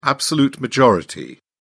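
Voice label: "absolute majority", British English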